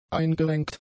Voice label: "eingerenkt", German; adjectives